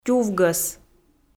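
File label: "тювгӧс", Komi, female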